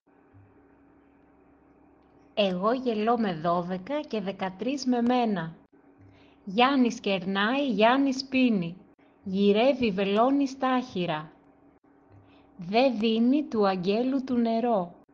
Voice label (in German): Redewendungen griechisch